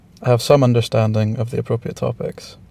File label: interviewee male